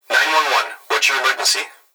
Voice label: Emergency Human Young Male Voice Police Dispatch 9-1-1 What's Your Emergency; emergency effects